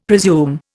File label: "presume", Indian; Dictionary